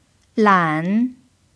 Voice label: "lan3", mandarin pinyin